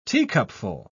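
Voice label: "teacupful", British English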